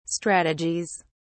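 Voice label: "strategies", British English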